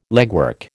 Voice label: "legwork", American

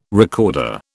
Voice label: "recorder", British

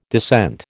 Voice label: "descent", American

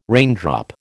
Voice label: "raindrop", American

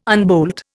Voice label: "unbolt", Indian

